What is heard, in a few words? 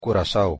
pronunciation pap curaçao